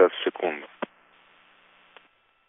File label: Space to Ground; Expedition 39